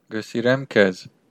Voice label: i love you, armenian western